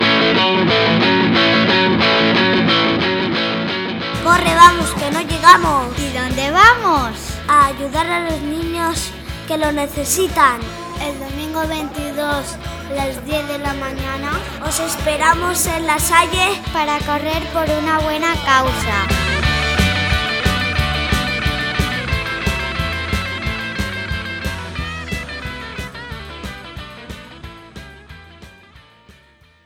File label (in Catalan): Anunci radio; Carrera Proideba 2018